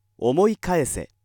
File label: male